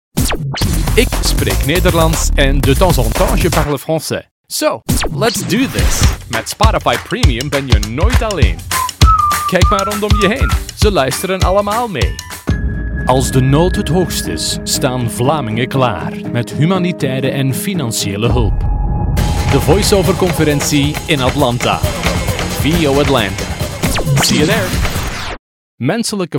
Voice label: Hollanda Erkek Belcika; seslendirme

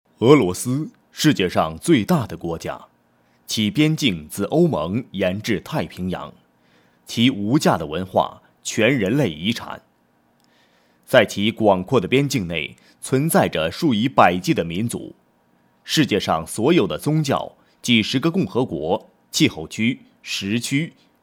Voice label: Cince Erkek Mandarin Cin Simplified, pekin seslendirme

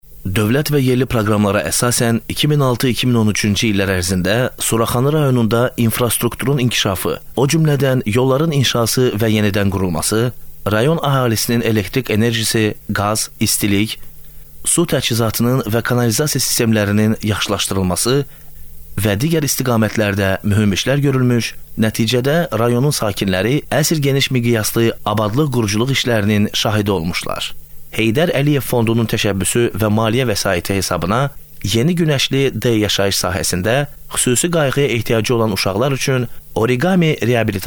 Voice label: Azerice Erkek, seslendirme; erkek sesler

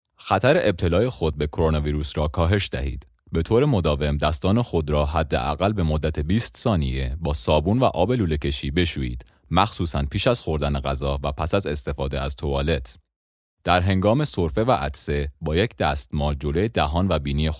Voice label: Farsca Erkek Iran; seslendirme

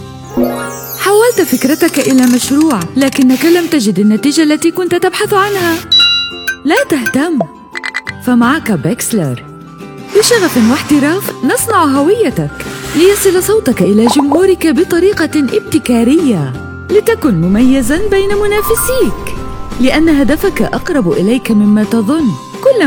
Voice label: Arapca Kadin Lubnan Arapca; klasik arapca; kadin sesler; klasik arapca seslendirme

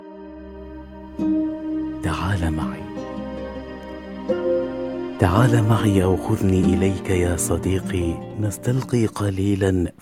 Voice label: Arapca Erkek Klasik Arapca, seslendirme; erkek sesler

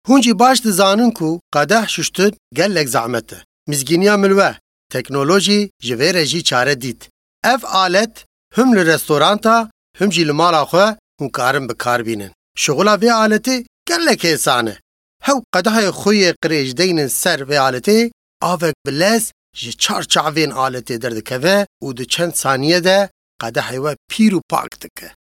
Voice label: Kurtce Erkek Kurmanci, seslendirme

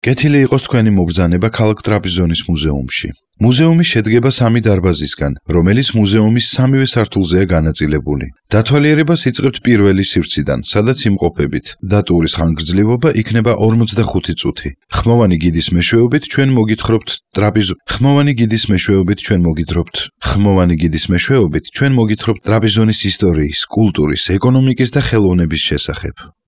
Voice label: Gurcuce Erkek, seslendirme

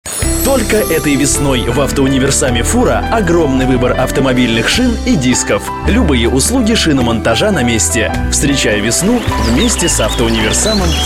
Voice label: Rusca Erkek, seslendirme; erkek sesler

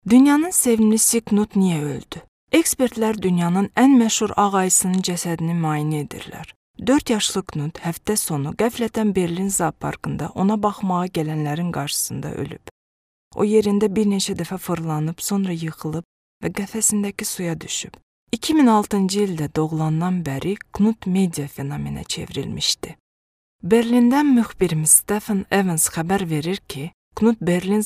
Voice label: Azerice Kadin, seslendirme

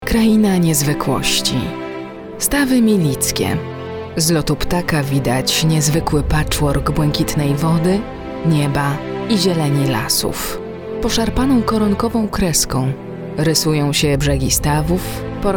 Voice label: Lehce Kadin, kadin sesler; lehce seslendirme

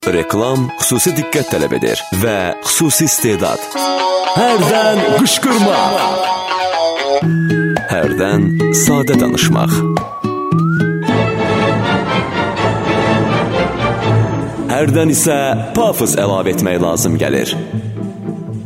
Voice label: Azerice Erkek, seslendirme

